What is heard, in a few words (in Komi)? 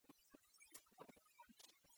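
мыйӧн кыйсисны коми вӧралысьяс, Komi, female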